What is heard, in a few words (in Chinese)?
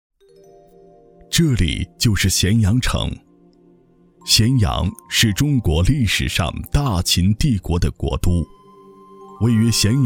宣传片小样; 泰山封禅